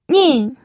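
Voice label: "nin", Mandarin Chinese, fourth tone; pinyin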